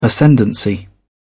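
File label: "ascendancy", British English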